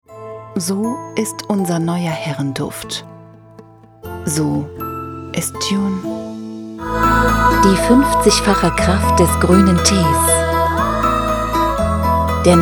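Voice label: german interactive voice response; doubleuse professionnelle allemande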